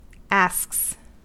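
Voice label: "asks" English, US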